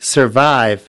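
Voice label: "survive", English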